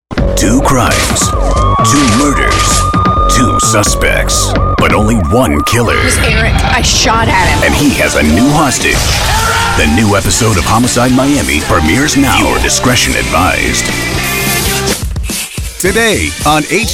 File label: Male Voice Over Talents TV Promo